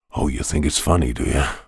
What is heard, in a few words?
human voice character american male 40s says oh you think its funny do yeh